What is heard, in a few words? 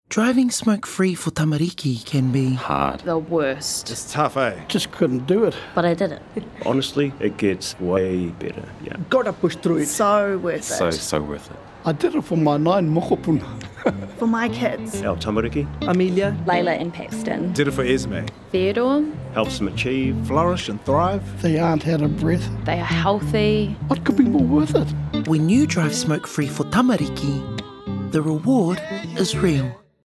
RADIO; Drive Smokefee Struggles